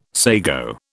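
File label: "sago", British English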